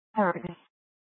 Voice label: PD Dispatch, scanner; Paradise Valley PD